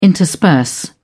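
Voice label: "intersperse", British English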